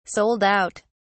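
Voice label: "sold out", English, British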